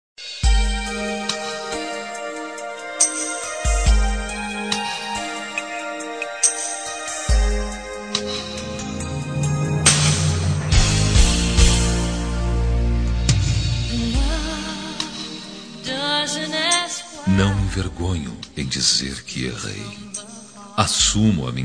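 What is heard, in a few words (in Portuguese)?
reconciliacao masculino; Telemensagens; ROM RECONCILIACAO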